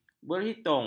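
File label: "berhitung", Malay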